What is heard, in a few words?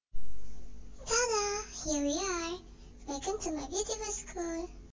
VoiceFX kid